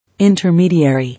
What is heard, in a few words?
"Intermediary", English